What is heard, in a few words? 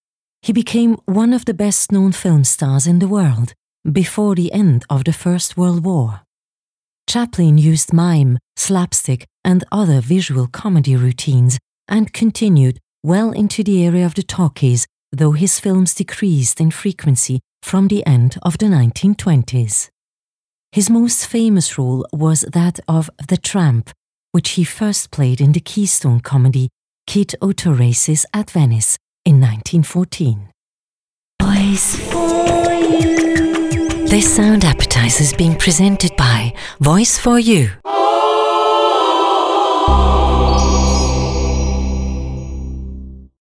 DOK neutral English